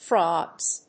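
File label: "frogs", English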